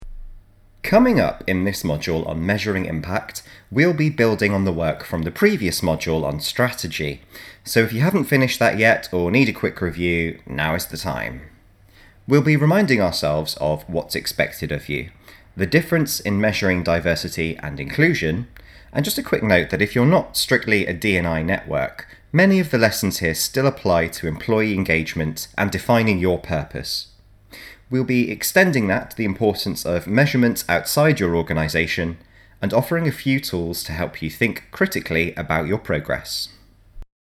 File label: Narration; Measuring Impact